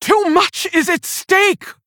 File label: Female Orc Hero Power; Garona; Valeera Mission6